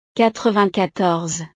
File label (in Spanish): "quatre-vingt-quatorze", frances